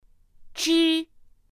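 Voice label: "zh", Mandarin Chinese pinyin; pinyin2; pinyinji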